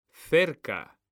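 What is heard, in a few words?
"CERCA", Spanish, male; mekanta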